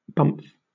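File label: "bumf", British English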